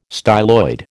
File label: "styloid", American